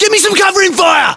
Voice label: order action cover me, uk, battlechatter, localized english